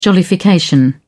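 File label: "jollification", British English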